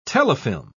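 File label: "telefilm", British English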